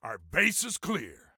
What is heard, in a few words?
Base Clear, Soldier Male; Soldier Male 03